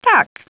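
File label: "yes", female; TALKNOW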